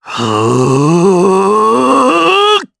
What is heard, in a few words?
Casting, jp; Shakmeh; kingsraid audio